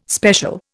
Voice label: "special", Indian